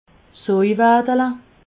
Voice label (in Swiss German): "soiwaddele", alsace